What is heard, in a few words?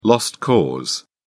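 "lost cause", British English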